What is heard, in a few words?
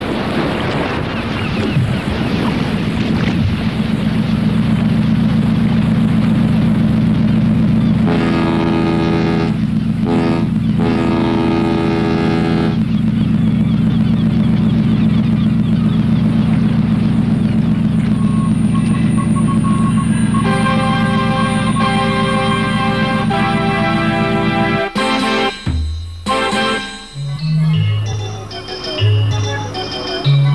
Radio jingle; Radio Brod